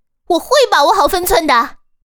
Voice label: 攻击, BattleCry; ycrpg

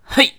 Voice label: 攻击, BattleCry, 年轻的修士; ycrpg

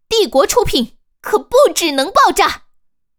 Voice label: 使用道具, BattleCry; ycrpg

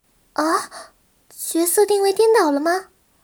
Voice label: 被治疗, battle cry; ycrpg; 南宫五月

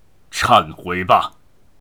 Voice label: 攻击, BattleCry; ycrpg; 大胡子

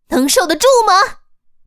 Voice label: 攻击, BattleCry; ycrpg

